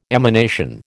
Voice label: "emanation", American